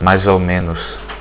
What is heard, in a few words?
so so, portuguese